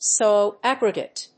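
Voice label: "Soil aggregate", English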